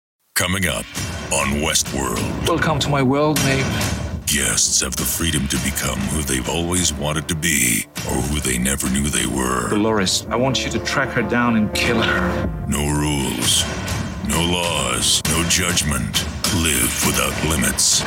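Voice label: american male promos, Warm Deep American Male Voice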